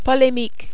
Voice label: "polemique", French

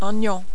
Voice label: "oignon", French

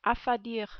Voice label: "affadir", French

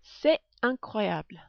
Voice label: "c est incroyable", French

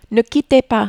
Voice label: "ne quittez pas", French

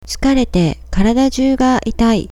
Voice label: "itai", Japanese

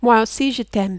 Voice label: "moi aussi je t'aime", French

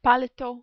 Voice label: "paletot", French

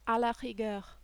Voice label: "a la rigueur", French

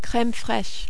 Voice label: "creme fraiche", French

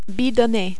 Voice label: "bidonner", French

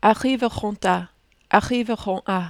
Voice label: "arriveront a", French

